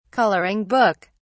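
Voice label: "coloring book", British English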